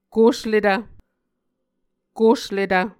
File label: "kosletv", Muscogee